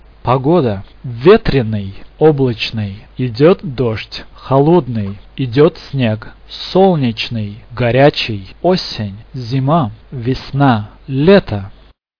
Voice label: russian weather